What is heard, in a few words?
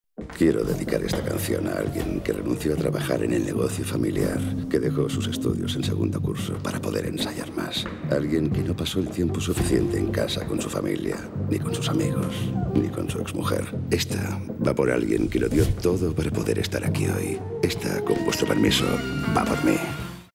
male spanish jazz festival comercial